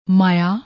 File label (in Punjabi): "maayaaa", Punjabi; ਮਾਇਆ